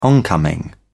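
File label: "oncoming", English, British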